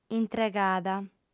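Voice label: "intregada", Sardinian, female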